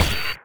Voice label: heavy pain; player; Dystopia